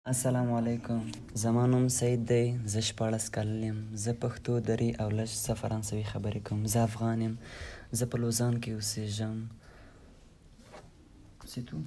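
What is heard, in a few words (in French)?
portrait pachto, Bonjour, Je m'appelle Saïd. J'ai 16 ans. Je parle pachtou,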